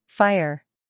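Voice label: "fire", American English female; everyone-can-use-english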